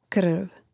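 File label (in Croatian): "krv", Croatian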